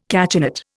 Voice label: "cachinnate", Indian